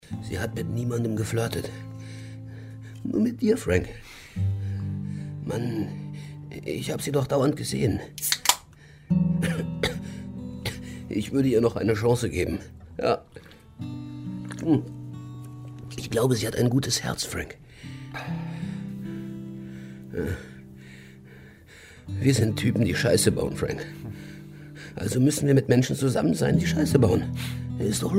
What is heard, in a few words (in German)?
Hoerspiel; Motel Life